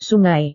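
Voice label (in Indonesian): "sungai", Indonesian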